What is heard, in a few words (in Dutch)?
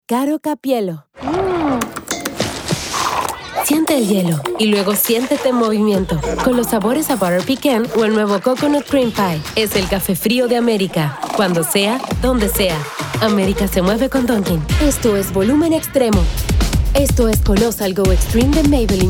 spaans